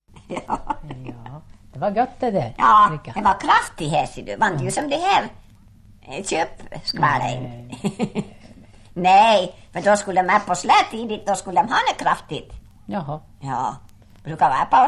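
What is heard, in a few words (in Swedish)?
Ål Dalarna, dialekter; Folkminnen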